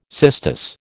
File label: "cistus", American